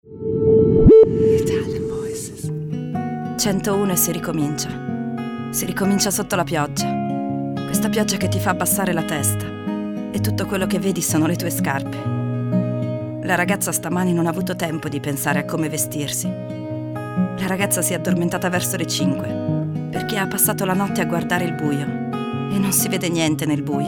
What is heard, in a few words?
italian voices naked